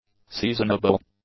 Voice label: "seasonable", English; adjectives